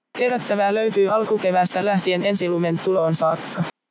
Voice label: noisy factory

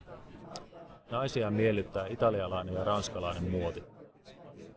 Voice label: babble; cleaned

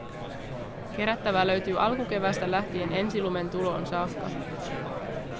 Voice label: babble

